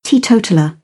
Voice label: "teetotaller", British English; oʊ - əʊ